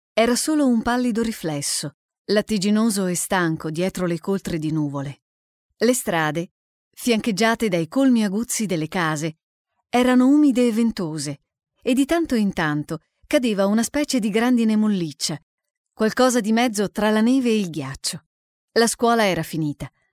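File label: Italian, female, voice over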